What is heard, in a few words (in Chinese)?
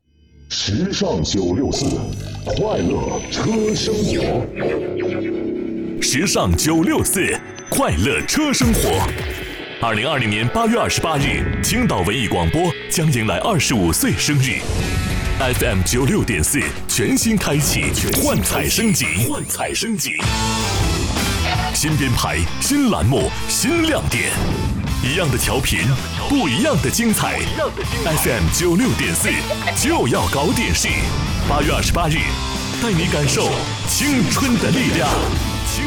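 片头片花电台片花; 青岛电台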